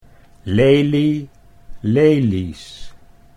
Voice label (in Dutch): "lelie lelies", Dutch